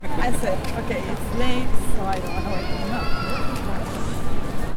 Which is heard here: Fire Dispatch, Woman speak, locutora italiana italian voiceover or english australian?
Woman speak